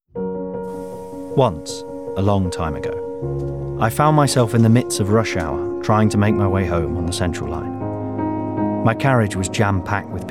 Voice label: Narrative

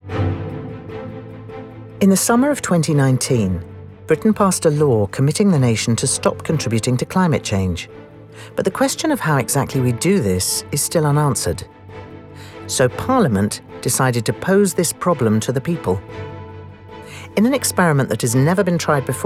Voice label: Documentary

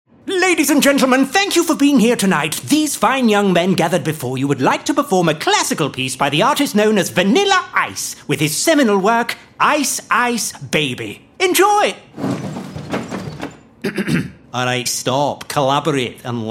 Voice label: Accent

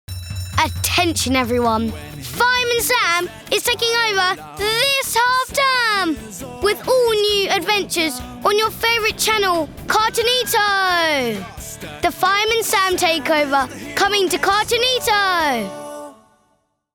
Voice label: Promo

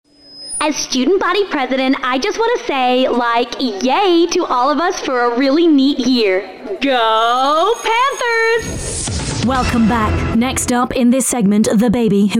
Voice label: Animation

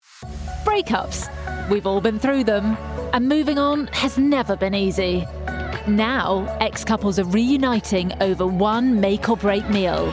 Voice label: Documentary Read

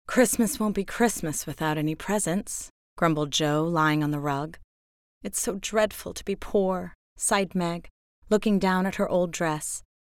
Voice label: Narration, American

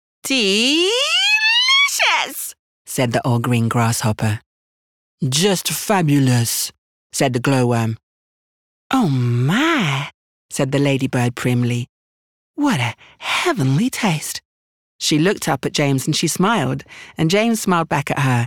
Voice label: Audiobook

